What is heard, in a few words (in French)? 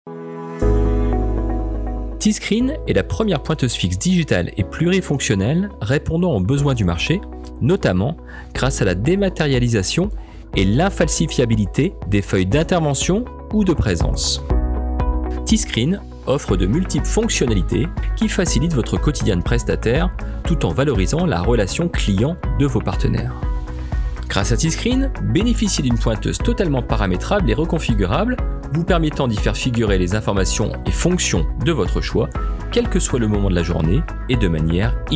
VOIX OFF